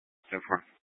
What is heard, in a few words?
Scottsdale Police, Simulcast